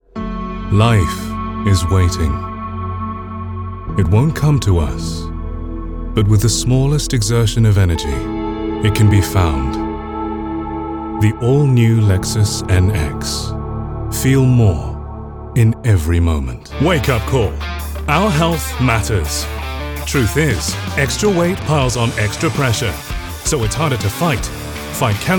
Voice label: Commercial

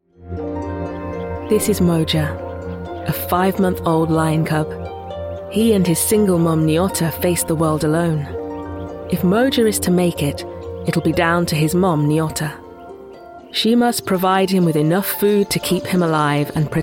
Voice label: Narrative

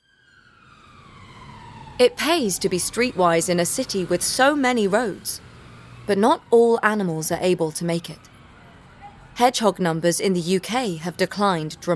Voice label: Documentary

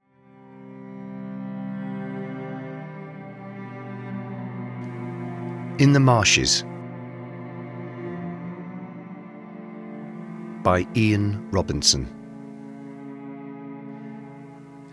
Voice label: Audiobook; In The Marshes

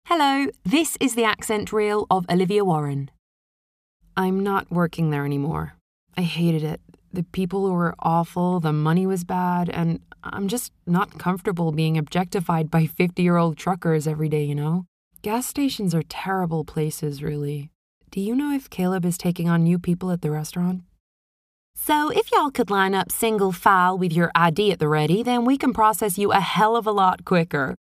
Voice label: accents

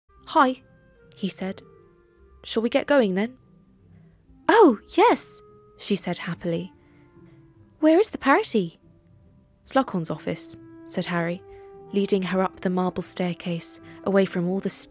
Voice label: Audiobook Sample

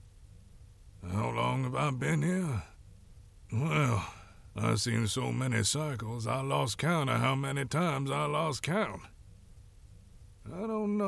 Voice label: Southern

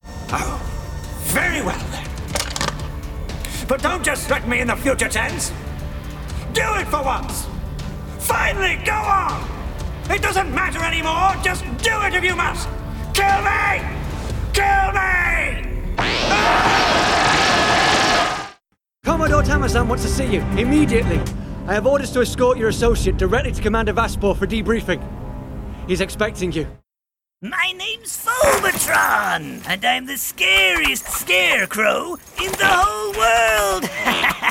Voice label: Character Voice

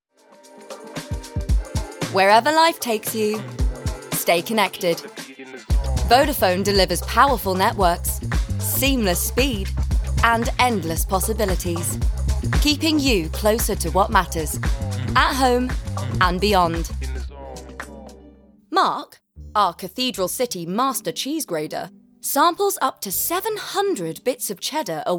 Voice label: Commercial

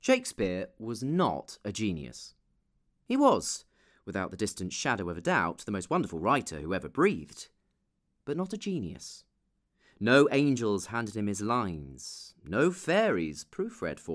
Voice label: Audiobook Non Fiction

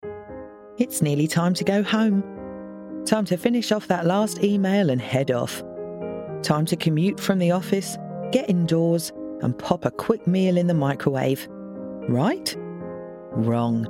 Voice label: Commercial

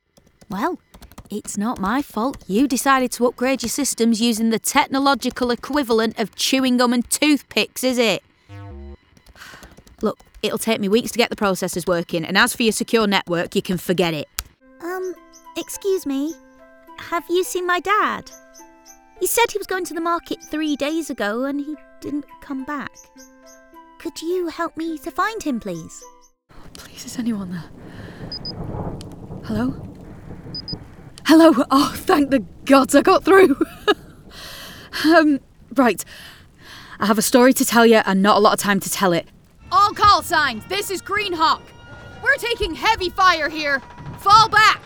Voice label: Gaming, female

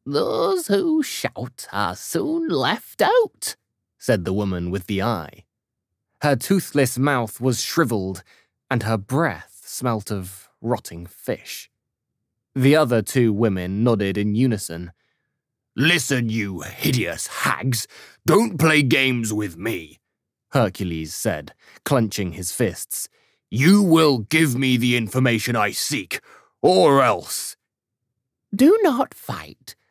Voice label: Audiobook

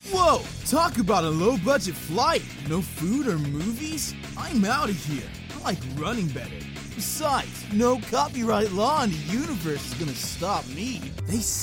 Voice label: Animation

